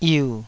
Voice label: "yiu3", taiwanese Syllables